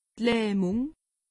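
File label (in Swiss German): "lahmung", Alsatian; medecine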